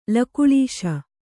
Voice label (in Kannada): "ಲಕುಳೀಶ", kannada